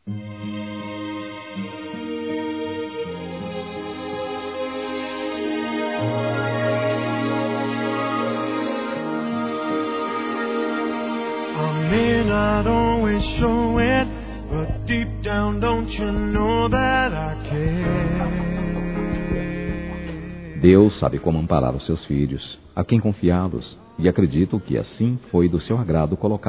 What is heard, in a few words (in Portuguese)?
Obrigado pela Ajuda Masculino; Telemensagens; Telemensagens 2017